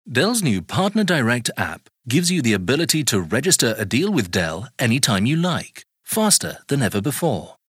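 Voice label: British corporate presentation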